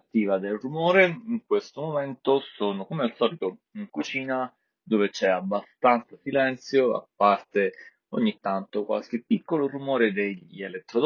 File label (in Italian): Test microfoni LG Tone FREE fn7